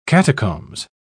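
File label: "catacombs", British English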